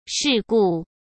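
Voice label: "世故", Mandarin Chinese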